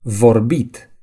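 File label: "speak", Romanian; gcompris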